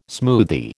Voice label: "smoothie", American